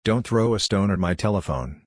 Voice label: ou Tongue Twister

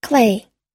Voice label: "clay", us; 粘土、土、泥

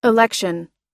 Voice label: "election" us; (投票による)選挙、当選

